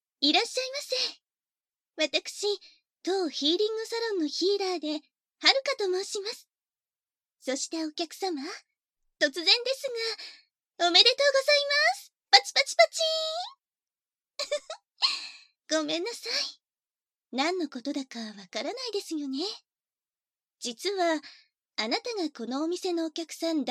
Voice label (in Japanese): バイノーラルマイク収録